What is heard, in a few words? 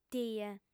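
"tie", Sardinian, female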